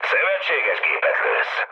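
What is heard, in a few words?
Hungarian player friendly fire mood low, speech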